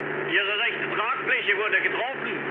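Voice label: German right wing mood med, speech; War Thunder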